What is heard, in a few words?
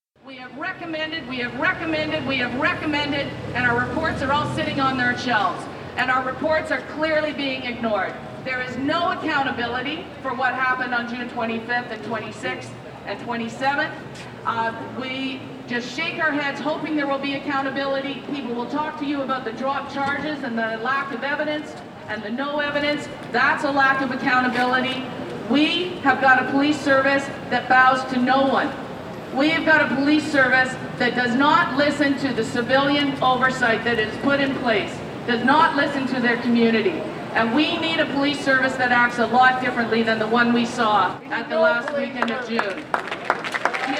Solidarity Rally Speech; G20